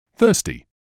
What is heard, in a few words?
"thirsty", English